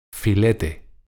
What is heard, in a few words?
"filete", pron